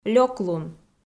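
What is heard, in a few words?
"лёклун", Komi, female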